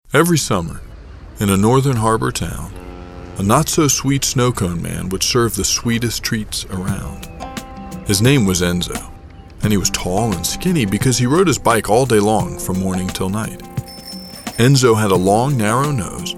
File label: Childrens